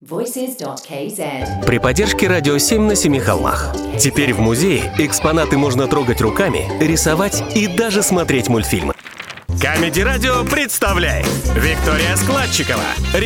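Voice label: Russian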